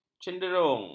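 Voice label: "cendurung", Malay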